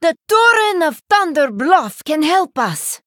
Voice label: Female Draenei Trigger